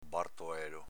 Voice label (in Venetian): "bartoelo", Veneto